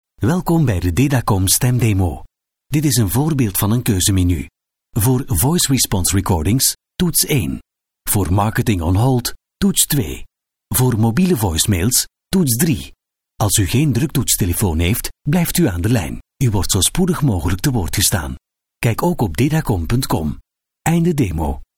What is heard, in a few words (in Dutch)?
voice over Vlaams man